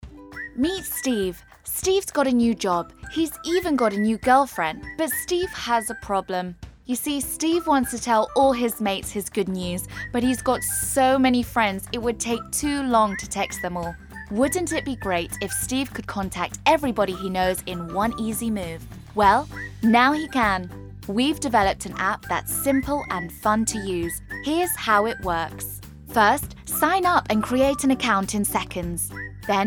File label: Explainer Learning